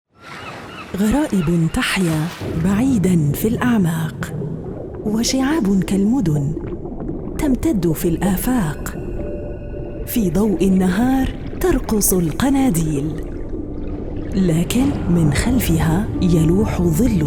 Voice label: female arabic modern standard arabic; national geographic abu dhabi